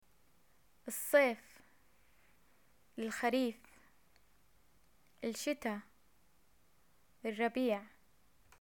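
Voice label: seasons, arabic, Jordanian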